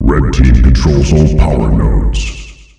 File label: Red team controls all powernodes, Announcer Voice, male; Unreal Tournament; ut2004